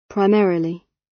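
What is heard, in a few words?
"primarily" British; Flora and fauna, agriculture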